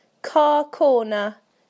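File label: "car corner", British English; PictureDictionary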